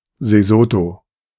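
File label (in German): "Sesotho", German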